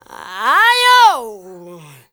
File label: ZULU MALE